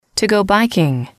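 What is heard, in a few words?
"to go biking", English, female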